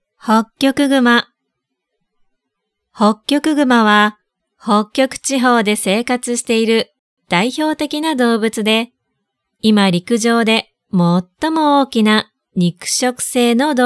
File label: soft slow